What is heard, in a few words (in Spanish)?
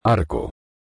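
"arco", Spanish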